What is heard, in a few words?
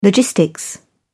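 "logistics", British English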